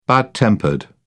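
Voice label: "bad tempered", British English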